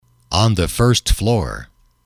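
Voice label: "on the first floor", English, male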